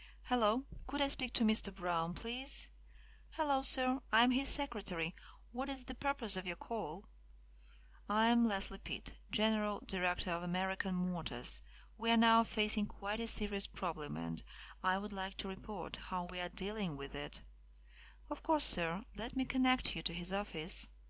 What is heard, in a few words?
phone talk